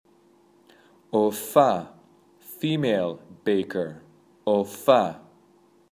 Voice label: Female Baker